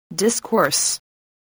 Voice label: "discourse", english spelling